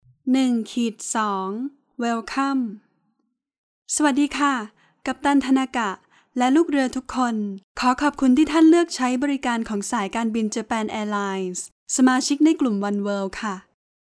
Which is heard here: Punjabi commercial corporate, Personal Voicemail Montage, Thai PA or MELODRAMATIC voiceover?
Thai PA